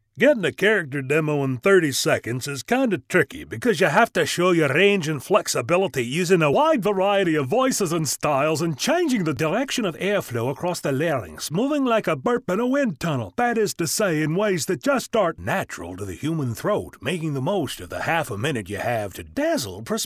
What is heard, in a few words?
animation